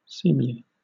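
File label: "seemly", British English; pronunciations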